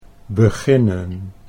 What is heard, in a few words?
"beginnen", Dutch